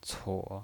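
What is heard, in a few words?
"cuo", Mandarin Chinese pinyin voice, third tone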